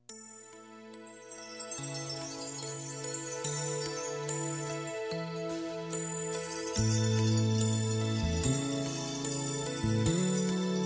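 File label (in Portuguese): Evangélica Aniversário de Amizade, female, telemensagens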